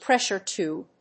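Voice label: "pressure tube", English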